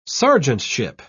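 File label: "sergeantship", British English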